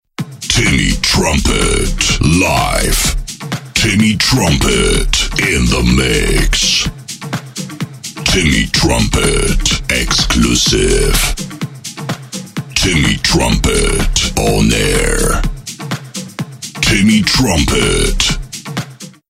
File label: JINGLES pack Voice Only